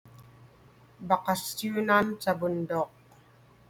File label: Tagalog